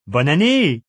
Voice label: New Year French, Male; Holiday Sound Effects

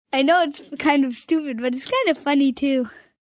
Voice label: I Know Its Stupid, 1 child, 9 yrs; Talking Sound Effects

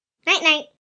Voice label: Nite Nite, 1 boy, 8 yrs; Talking Sound Effects; Voice

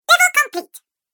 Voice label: Level Complete Cartoon Game Voice

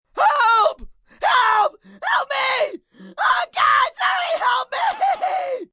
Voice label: Oh God Help Me, distress, help, male; Calls; Exclamation; VoiceBox

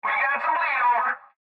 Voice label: Cb Trucker Radio; Radio Voice; Blastwave

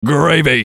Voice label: VOICE COMICAL; Gravy; Radio Voice; Blastwave

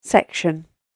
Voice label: "Section", British Female Voice; Talking Sound Effects; spoken word

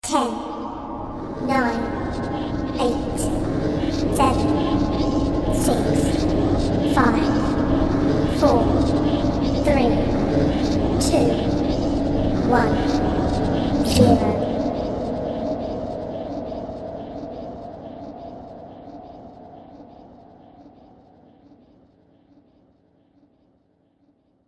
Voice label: Horror Countdown Creepy Female Voice; Devil Voice Sound Effects

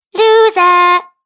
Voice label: Cartoon Girl Loser; Cartoon Voice Sound Effects

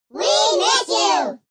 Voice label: We Miss You Group, Group; Talking Sound Effects

